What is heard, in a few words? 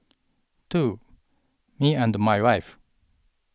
jpn eng customer, M